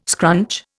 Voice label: "scrunch", Indian